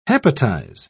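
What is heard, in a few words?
"hepatize", British English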